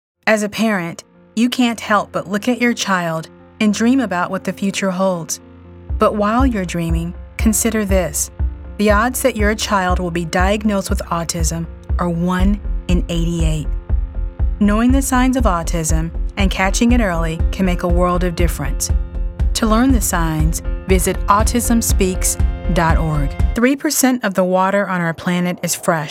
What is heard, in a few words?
narration